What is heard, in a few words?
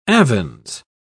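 "avens", English, British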